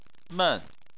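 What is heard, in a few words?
"men", Chinese, fourth tone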